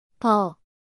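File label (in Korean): "버", Korean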